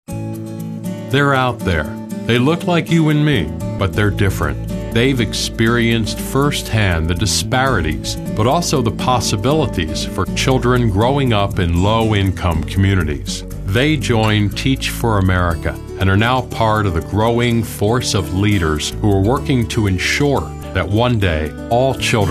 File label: narr; Voice Demo